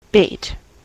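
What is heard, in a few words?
"bait", US English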